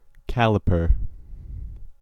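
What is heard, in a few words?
"caliper" English, American accent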